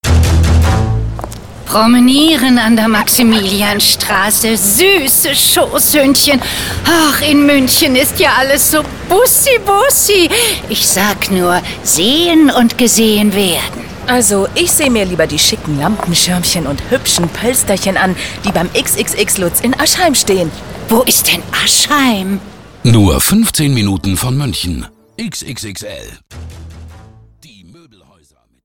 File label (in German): Spots, Szene, frauen; XXXLutz